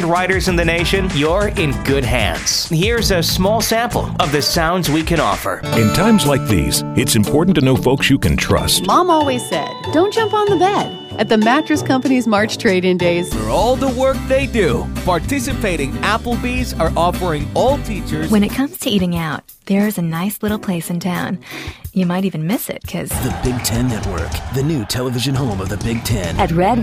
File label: radio ad samples